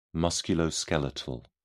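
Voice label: "musculoskeletal", British English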